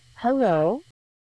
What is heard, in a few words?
Voice Acting es